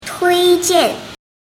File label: "tuijian", Mandarin